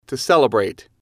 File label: "to celebrate", English